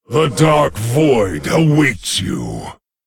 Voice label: Male Lich Threaten